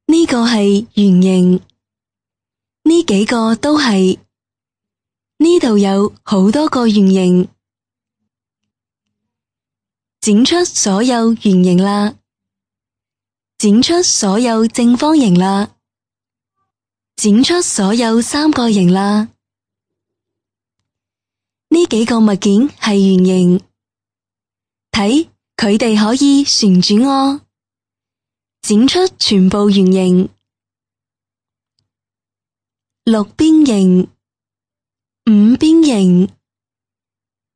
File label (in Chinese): 粤语 慢速描述, 女